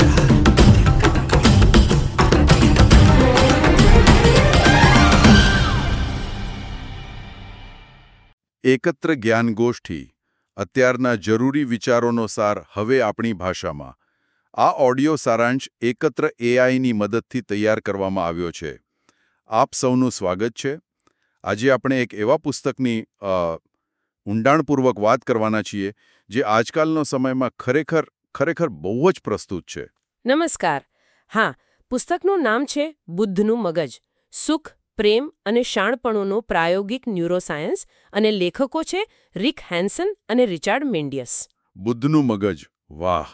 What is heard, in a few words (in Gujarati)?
Audio gujarati